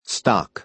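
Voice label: "stock", American English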